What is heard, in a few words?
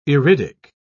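"iridic", English, British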